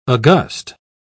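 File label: "august", British English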